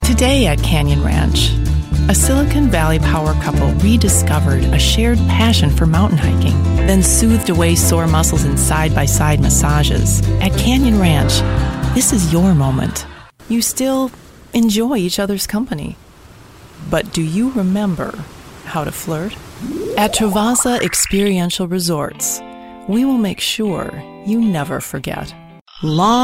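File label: Official Commercial, British English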